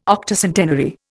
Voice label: "Octocentenary", Indian

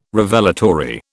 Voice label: "Revelatory", British

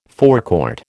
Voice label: "forecourt", American

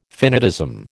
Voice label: "finitism", American

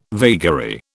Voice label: "vagary", British

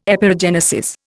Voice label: "Epeirogenesis", Indian

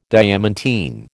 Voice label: "diamantine", American

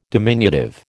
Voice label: "diminutive", American

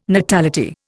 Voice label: "natality", Indian

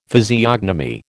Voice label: "physiognomy", American

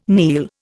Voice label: "kneel", Indian

